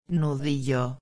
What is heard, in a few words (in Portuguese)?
"nudillo", espanhol; palavra do dia